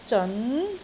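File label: "zeon2", Cantonese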